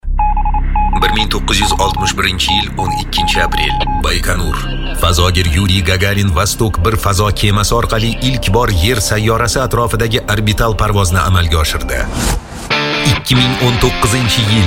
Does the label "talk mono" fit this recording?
No